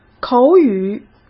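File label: "口语", Mandarin Chinese; chinese flashcards; hsk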